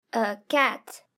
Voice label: "cat", english; grade3; fun with sentence